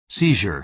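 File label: "seizure", American English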